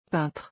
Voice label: "peintre", French

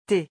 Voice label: "thé", French

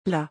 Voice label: "là", French

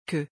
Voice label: "que", French

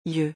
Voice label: "yeux", French

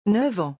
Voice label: "neuf ans", French

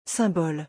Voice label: "symbole", French

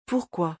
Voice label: "pourquoi", French

